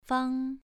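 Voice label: "fang", Mandarin Chinese, second tone, pronunciation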